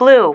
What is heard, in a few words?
"BLUE", female